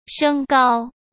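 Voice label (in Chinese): "升高", Mandarin Chinese; chinese flashcards; hsk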